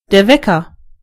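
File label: "clock", German; gcompris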